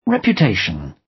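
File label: "reputation", British English; Time, history; Thời gian, lịch sử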